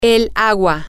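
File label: the water, Spanish, female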